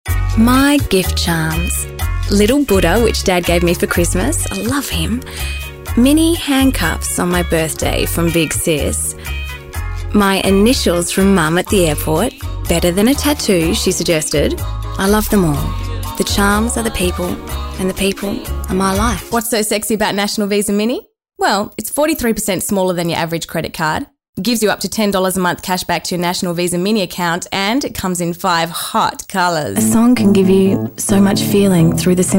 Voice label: voice acting